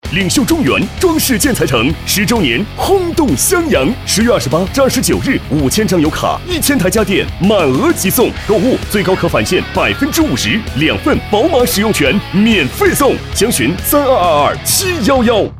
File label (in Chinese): 领秀中原装饰男96-大气有力